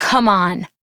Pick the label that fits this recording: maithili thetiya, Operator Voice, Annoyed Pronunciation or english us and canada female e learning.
Operator Voice